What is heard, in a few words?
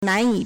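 "nan2yi3", Mandarin Chinese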